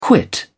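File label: "quit", English, uk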